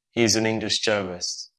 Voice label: Voice Acting, es